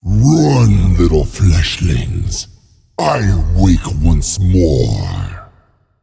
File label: Male Elemental Play